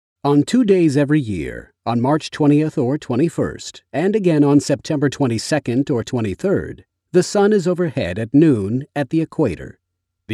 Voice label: Documentary Narration DRY VOICE